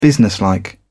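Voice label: "businesslike", British English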